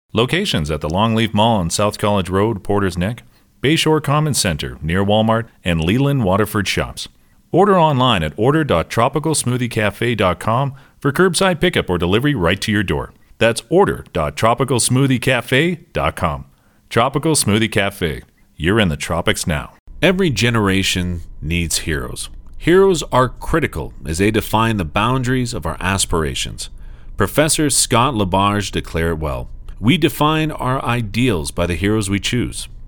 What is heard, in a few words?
USA